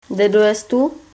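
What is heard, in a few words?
where from, Lingua Franca Nova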